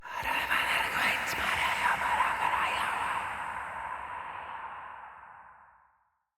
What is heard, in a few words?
Bb Whis Circade en, Dialog; Encounters